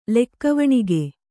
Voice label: "ಲೆಕ್ಕವಣಿಗೆ", kannada

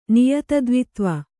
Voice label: "ನಿಯತದ್ವಿತ್ವ", Kannada

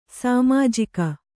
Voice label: "ಸಾಮಾಜಿಕ", Kannada

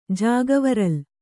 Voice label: "ಜಾಗವರಲ್", Kannada

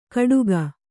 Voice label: "ಕಡುಗ", Kannada

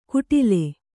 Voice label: "ಕುಟಿಲೆ", Kannada

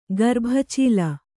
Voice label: "ಗರ್ಭಚೀಲ" Kannada; garbha cīla; noun; = ಗರ್ಭಕೋಶ.

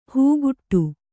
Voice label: "ಹಾಗಡ", Kannada

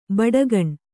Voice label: "ಬುಡಿಗಾನೆ", Kannada

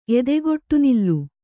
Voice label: "ಎದೆಗೊಟ್ಟುನಿಲ್ಲು", Kannada; edegoṭṭunillu

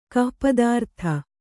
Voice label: "ಗೋಪಿತಾರ್ಥ", Kannada

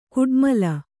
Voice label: "ಕುಟ್ಮಲ", Kannada

